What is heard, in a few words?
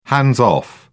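"hands-off", British English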